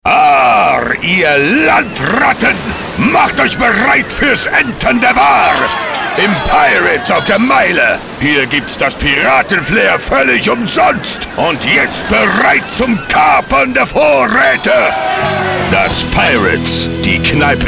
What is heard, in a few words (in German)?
Werbung; Pirates in Hamm